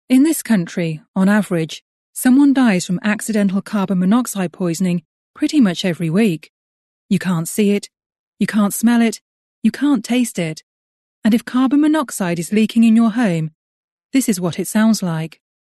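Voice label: English, female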